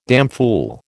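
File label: "damfool", American English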